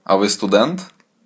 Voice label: dialogue, Ukrainian